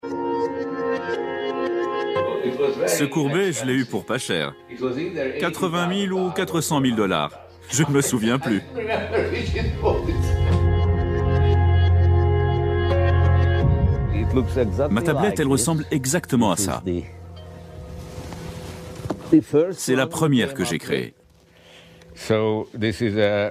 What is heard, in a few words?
voice over; wall street